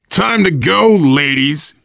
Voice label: move on, voice, biker